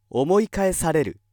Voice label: male